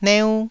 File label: "neu1", taiwanese syllables